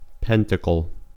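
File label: "pentacle" English, US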